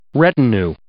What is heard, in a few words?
"retinue", English